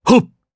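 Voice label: Vox Jump, Japanese; kingsraid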